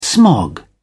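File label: "smog", British English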